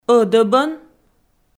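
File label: "ӧдӧбӧн", Komi, female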